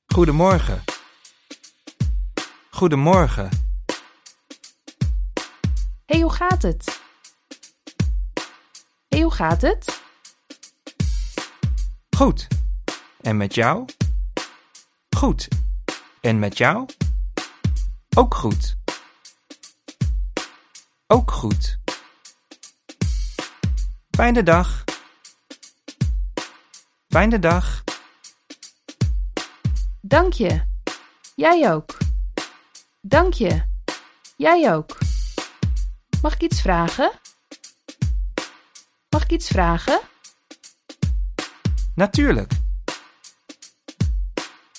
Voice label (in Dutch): Taalriedel 80bpm; SPREEKTAAL Basis